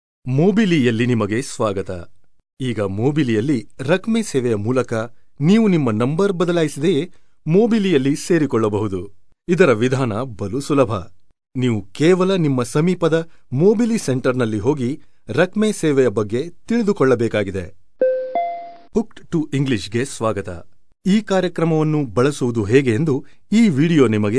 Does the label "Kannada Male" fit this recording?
Yes